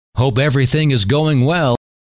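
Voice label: hope everything is going well, male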